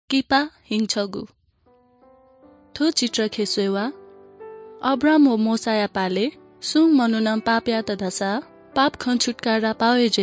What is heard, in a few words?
good news newari bhaktapur